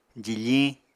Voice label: "jifiñ", Apatani